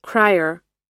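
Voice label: "cryer", English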